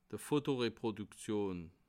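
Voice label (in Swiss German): "fotoreproduktion", Bas Rhin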